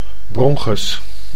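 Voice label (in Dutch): "bronchus", Dutch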